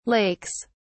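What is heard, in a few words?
"lakes", British English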